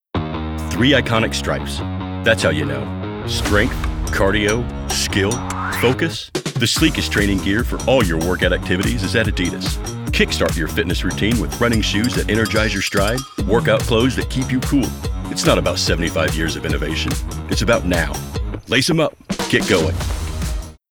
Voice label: Explainer